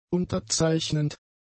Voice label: "unterzeichnend", German; adjectives